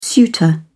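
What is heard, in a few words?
"suitor", British English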